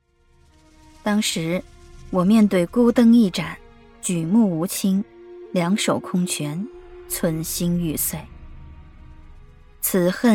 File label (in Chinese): 有声读物